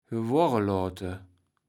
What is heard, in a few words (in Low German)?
"gewordeloate", kaevels platt